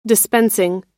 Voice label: "dispensing", pronounce